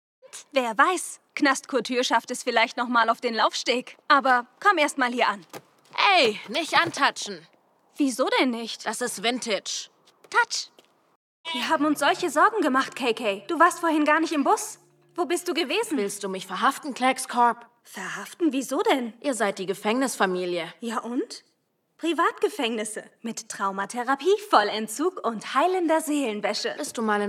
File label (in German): Synchron